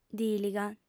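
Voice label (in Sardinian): "dìliga", female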